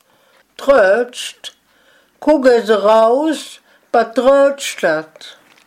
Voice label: "dröötscht" im Satz; heimatfreunde weibern

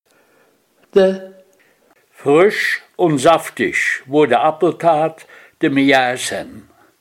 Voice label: "de" mit Satz; heimatfreunde weibern

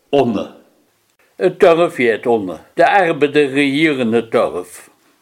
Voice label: "onne" mit Satz; heimatfreunde weibern

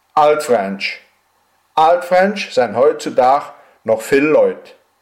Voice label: altfränsch im Satz; heimatfreunde weibern

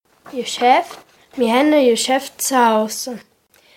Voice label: "Jeschäft" im Satz; heimatfreunde weibern

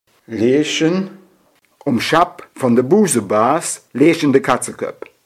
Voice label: "leschen" mit Satz; heimatfreunde weibern

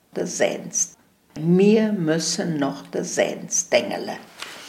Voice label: "Sens" im Satz; heimatfreunde weibern

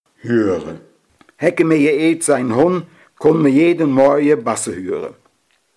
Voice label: "hüüre" mit Satz

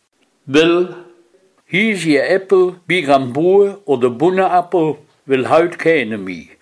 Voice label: "well" mit Satz; heimatfreunde weibern

